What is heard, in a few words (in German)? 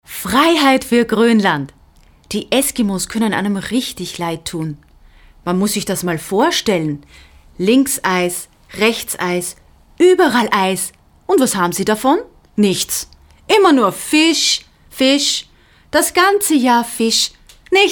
Werbung; Billy Pils; Sprecherin für Werbung